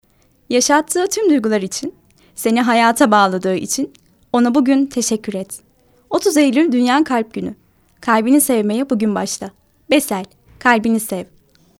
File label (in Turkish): reklam